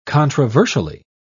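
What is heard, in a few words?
"controversially", British English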